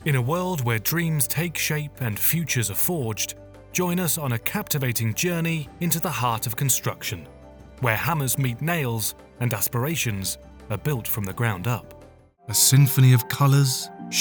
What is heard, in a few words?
english british documentaries, british voice actors